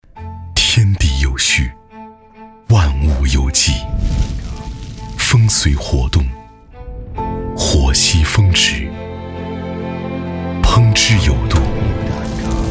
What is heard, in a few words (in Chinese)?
广告 集成灶; 苏泊尔集成灶